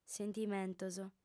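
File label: "sentimentos", Sardinian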